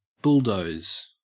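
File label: "bulldoze", Australian English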